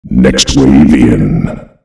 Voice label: next wave in, Announcer EVIL; Unreal Tournament; ut2003

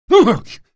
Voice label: monk pain; ravenholm

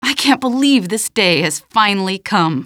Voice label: question, female; Half-Life 2

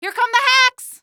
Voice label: here come hacks, female; Half-Life 2

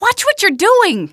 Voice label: watch what, female; Half-Life 2

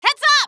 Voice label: heads up, female; Half-Life 2

